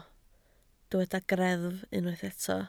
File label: "greddf", Welsh